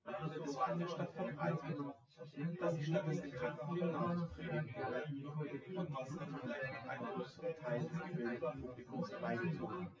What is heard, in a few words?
male synth conv 250ms 5cm sim; SiSEC08; SiSEC underdetermined